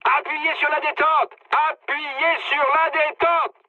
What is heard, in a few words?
attack position mood high, speech fr; War Thunder